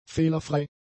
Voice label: "fehlerfrei", german; adjectives